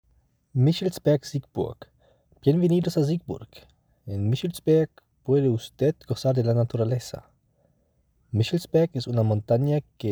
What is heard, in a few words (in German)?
Spanisch; Michelsberg Siegburg